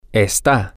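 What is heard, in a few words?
he she it is, spanish, male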